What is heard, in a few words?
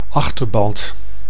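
"achterband", Dutch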